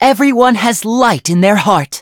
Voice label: touch, en; cookie run